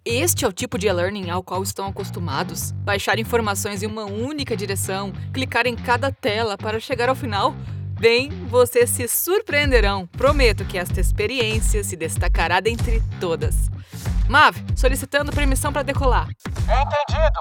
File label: Educational eLearning Energetic Engaging Genuine Voiceover; EmmiFInance